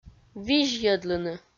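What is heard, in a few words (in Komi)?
"визьйӧдлыны", Komi, female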